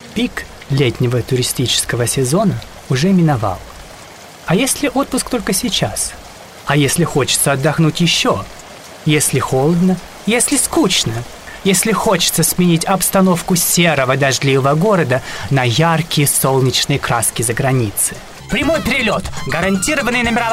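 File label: Russian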